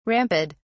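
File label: "ramped", British English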